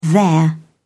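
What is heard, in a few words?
"their", British English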